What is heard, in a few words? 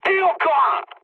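player killed enemy, mood low, French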